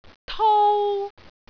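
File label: "tou1", Cantonese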